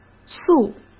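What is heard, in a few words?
"素", Mandarin Chinese; hsk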